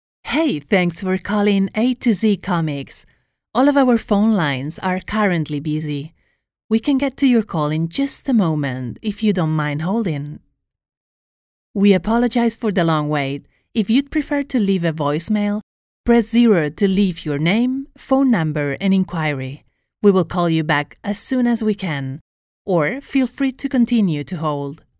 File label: IVR English; DYNAMIQUE